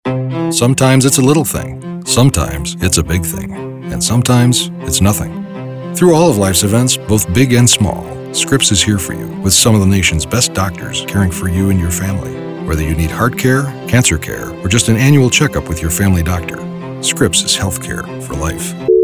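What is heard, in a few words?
NARRATION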